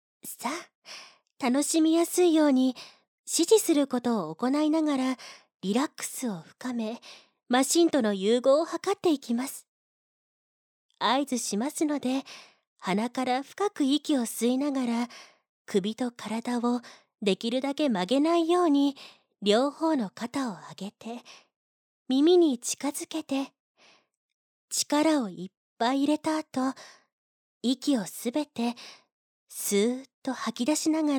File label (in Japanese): 催眠誘導